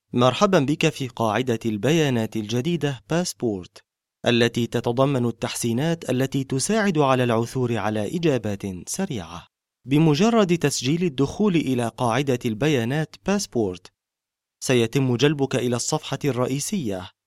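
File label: Arabic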